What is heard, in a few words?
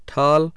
hindi, vowels